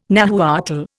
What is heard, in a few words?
"Nahuatl", Indian